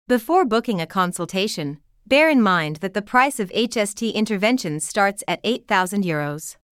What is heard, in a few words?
Vocal english